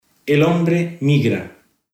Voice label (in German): spanisch costa rica